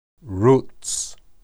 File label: "ruts", Hebrew